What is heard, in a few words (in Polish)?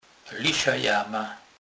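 "jama", Polish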